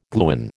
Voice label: "gluon", American